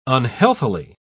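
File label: "unhealthily", British English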